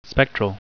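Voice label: "spectral", English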